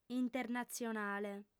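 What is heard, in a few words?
"internatzionale", female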